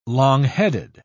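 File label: "long-headed", British English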